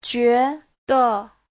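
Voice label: "juéde", Mandarin Chinese; 觉得; (động từ) cho rằng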